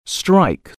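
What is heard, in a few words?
"strike", English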